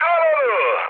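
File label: attack position, mood high, Turkish; War Thunder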